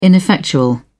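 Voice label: "ineffectual", British English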